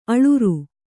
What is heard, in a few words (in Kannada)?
"ಅಳುರ್", kannada